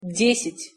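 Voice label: "desjat", Russian pronunciation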